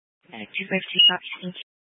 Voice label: Fire Departments, scanner